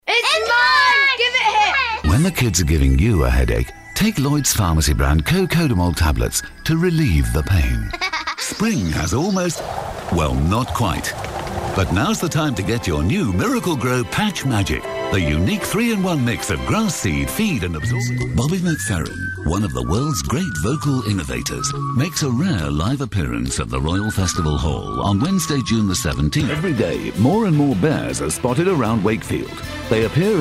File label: commercials